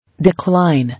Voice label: "Decline", English

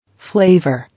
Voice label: "Flavour", English

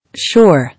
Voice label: "Shore", English

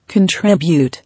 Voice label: "Contribute", English

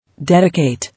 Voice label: "Dedicate", English

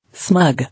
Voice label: "Smug", English

